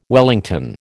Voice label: "wellington", American